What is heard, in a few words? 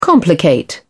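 "complicate", British English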